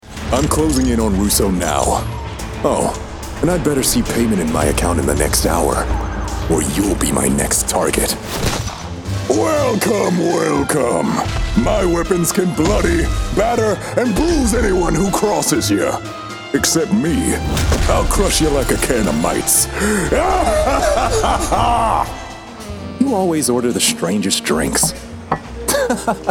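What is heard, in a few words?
Video Game, Australian English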